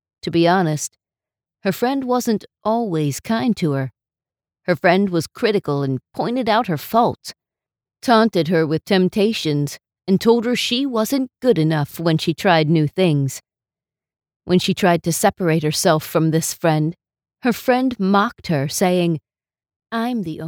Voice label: Audiobook Low Tone